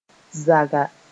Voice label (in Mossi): "zaga", moore